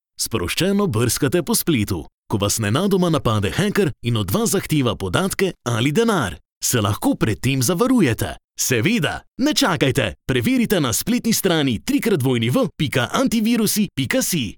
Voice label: COMMERCIAL ANTIVIRUS